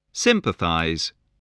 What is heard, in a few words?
"sympathize", English